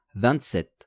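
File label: "vingt-sept", French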